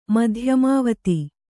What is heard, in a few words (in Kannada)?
"ಮಧ್ಯಮಾವತಿ", Kannada